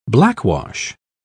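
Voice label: "blackwash", British English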